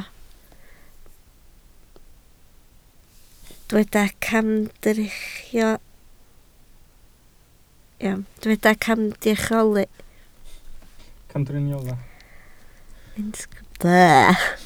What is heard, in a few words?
"camdriniolach", Welsh